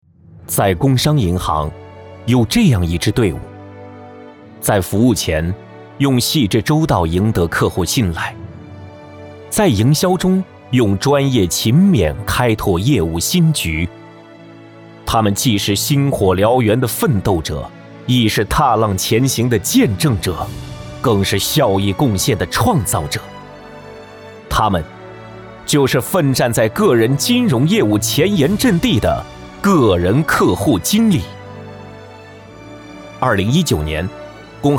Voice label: 男 颁奖; 工商银行

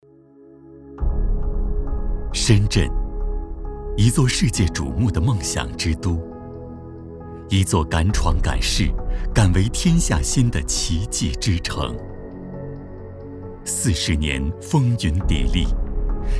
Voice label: 男 宣传片 舒缓 大气; 深圳体育

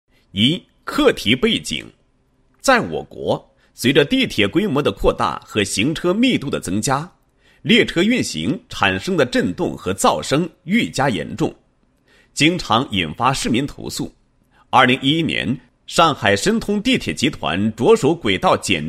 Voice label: 男, 项目; 整体道床改为浮置板道床施工技术及成套设备研制

